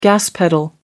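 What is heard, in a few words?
"gas pedal", English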